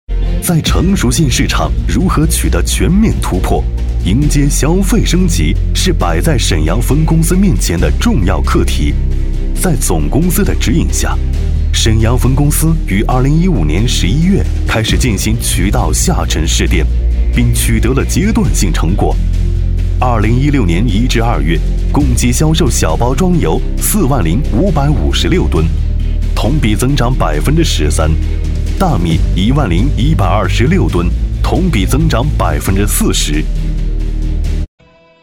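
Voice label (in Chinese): 国语 男声 宣传片 大气起伏 大气浑厚磁性 沉稳; 沈阳公司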